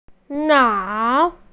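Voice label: "nao3", Mandarin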